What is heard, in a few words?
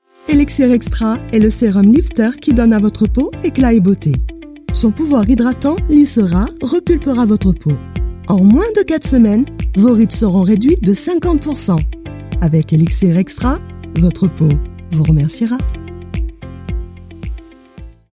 French; Elixir Extra